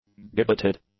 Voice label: "gibbeted", english; adjectives